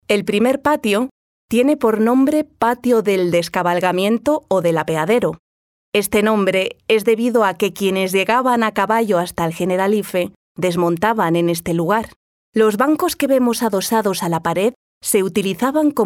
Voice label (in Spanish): TONO NARRATIVO